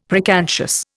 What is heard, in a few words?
"preconscious", Indian; Dictionary